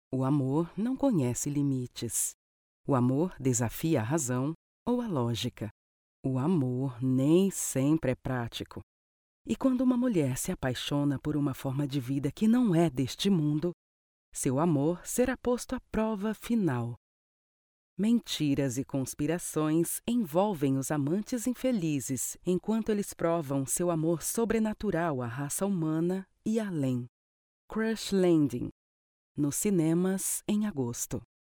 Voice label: portuguese brazilian movie trailers